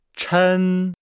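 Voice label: "chen", Chinese; Nihao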